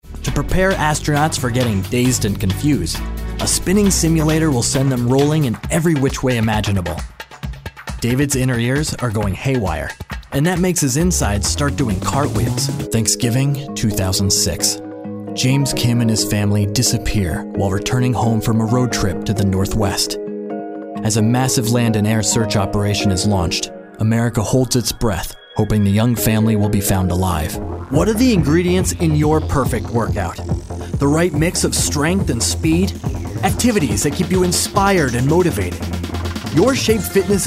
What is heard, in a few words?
Narration